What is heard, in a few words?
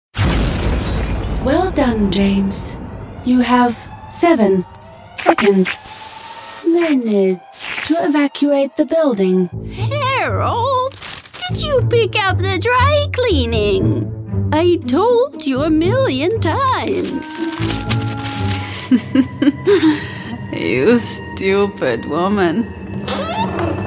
finnish female voice character